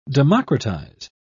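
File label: "democratise", British English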